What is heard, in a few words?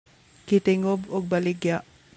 Cebuano